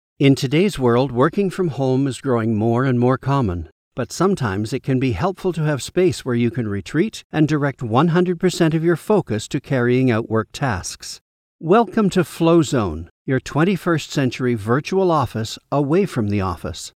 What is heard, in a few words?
E Learning bright English North American